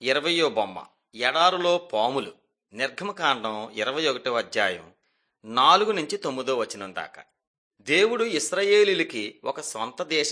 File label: telugu bangladesh; mighty men of god